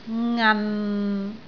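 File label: "ngan6", Cantonese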